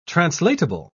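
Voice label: "translatable", British English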